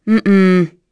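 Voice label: Vox Deny, voices heroes English; kingsraid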